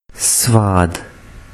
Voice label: "svaad", urdu

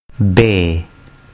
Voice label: "be", Urdu

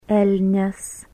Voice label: "elnias", lithuanian

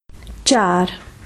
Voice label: "Three", hindi

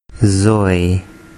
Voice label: "zoy", Urdu

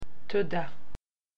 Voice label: "thank you", Hebrew

